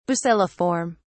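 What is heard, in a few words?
"bacilliform", British English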